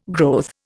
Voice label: "growth", Indian

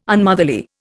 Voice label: "Unmotherly", Indian

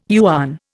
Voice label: "yuan", Indian

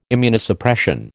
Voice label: "Immunosuppression", American

